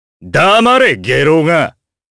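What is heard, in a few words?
vox Japanese; Kain; kingsraid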